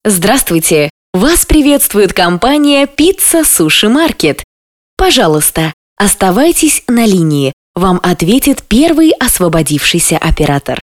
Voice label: IVR